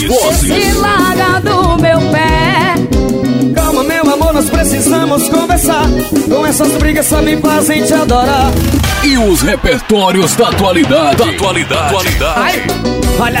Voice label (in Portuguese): spot forro swing; araioses ma